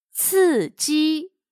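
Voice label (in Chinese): "刺激" Mandarin Chinese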